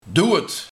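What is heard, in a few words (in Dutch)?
"Doewet" Dutch dialect; Dood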